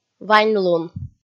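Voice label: "ваньлун", Komi, female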